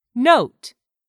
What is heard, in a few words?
"note", English; 3000 words